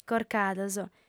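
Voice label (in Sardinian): "corcados", female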